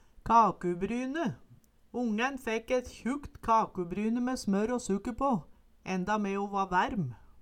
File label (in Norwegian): kakubryne, Numedalsmål